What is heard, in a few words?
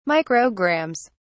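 "micrograms", British English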